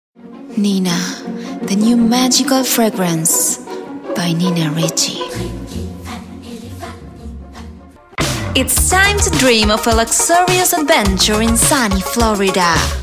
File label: female spanish argentine english with latam accent compilation